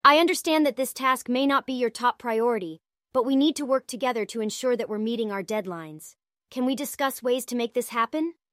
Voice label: synthesized audio